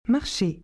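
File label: "marcher", French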